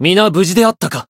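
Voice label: win, Japanese; shion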